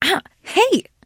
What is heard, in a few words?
pain respond; 商人杰恩（七日杀）